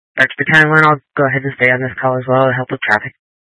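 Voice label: Incident Tactical, scanner audio recording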